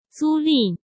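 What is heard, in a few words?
"租赁", Mandarin Chinese; chinese flashcards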